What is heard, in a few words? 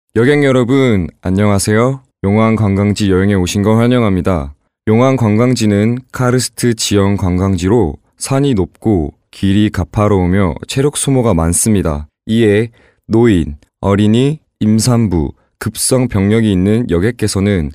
MALE KOREAN